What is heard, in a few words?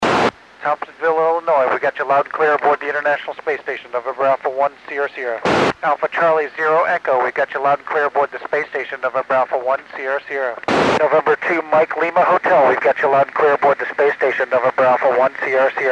145 8 MHz; Col. Doug Wheelock (NA1SS) wks U.S., Cuban and Mexican stations